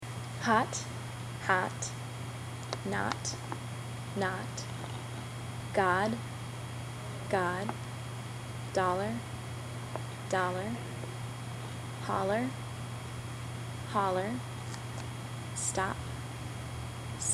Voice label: US New Jersey practice; usa; Central Jersey